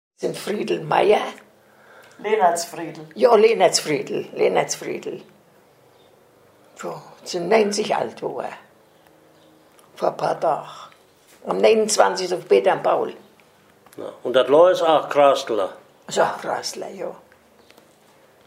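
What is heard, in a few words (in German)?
vorstellung, O-Ton Hunsrück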